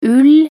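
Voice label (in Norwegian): "ull", Norwegian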